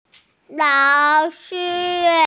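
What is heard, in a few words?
"lao3shi1", Mandarin Chinese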